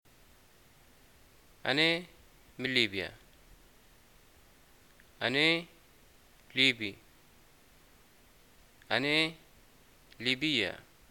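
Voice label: im from libya, Libyan arabic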